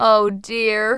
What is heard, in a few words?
"Oh dear", Smooth Babe; Worms Armageddon